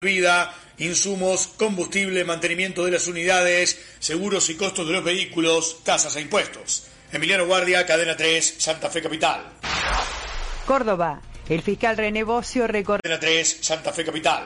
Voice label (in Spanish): Radio Explosion Gasoducto; Cadena 3 Argentina; Viva la Radio; Villa Maria Localidad